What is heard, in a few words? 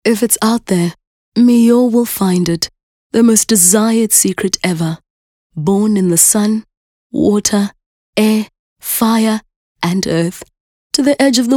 English Intimate